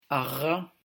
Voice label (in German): uvularer Frikativ